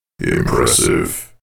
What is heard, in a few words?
"impressive", announcer